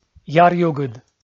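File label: "яръюгыд", Komi, male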